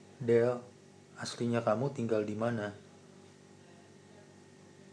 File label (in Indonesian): pesan suara